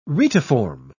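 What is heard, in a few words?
"retiform", English, British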